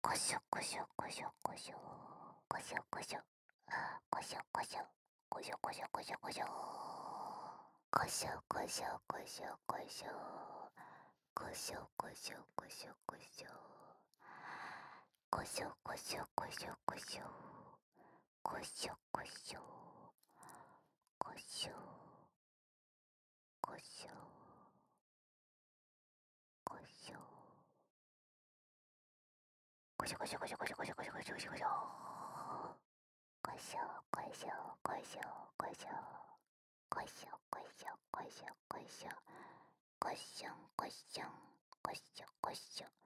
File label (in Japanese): こしょこしょループ